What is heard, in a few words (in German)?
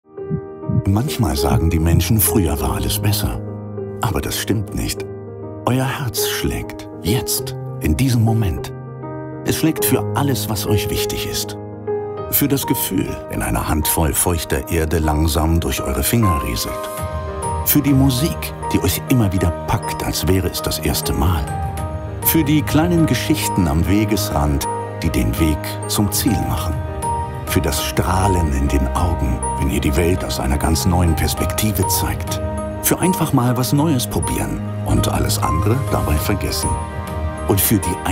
imagefilm herzstolpern